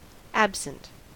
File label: "absent", us